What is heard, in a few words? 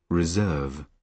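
"reserve", British English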